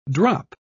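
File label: "drop", English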